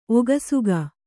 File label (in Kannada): "ಒಗಸುಗ", Kannada